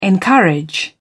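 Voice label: "encourage", British English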